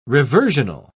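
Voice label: "reversional", British English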